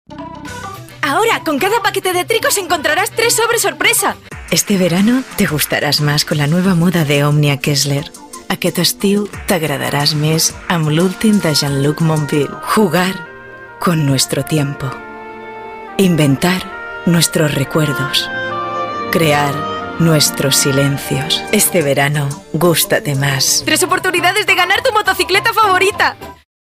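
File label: Spanish, female, commercial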